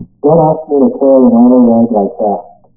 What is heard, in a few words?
laser vibrometer, Recorded Speech; VisualMic; TIMIT mabw0 sa2